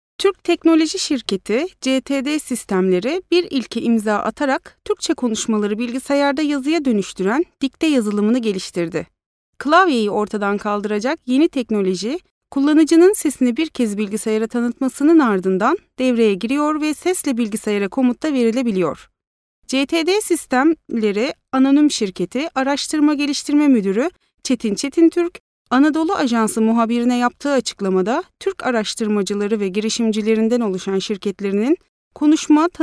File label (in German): Film Sprecherin Türkisch; Nachvertonung Film